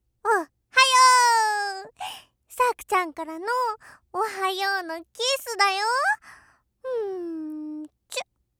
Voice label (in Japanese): おっはよー！さくちゃんからのおはようのキスだよ～！んー…ちゅっ, 目覚ましボイス